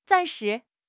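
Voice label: "zan4 shi2", Mandarin Chinese; HSK4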